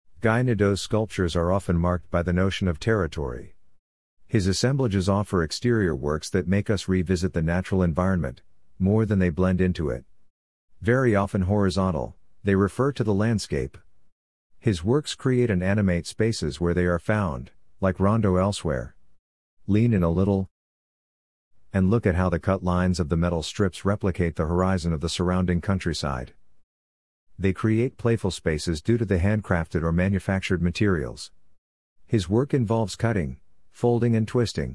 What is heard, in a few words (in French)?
anglais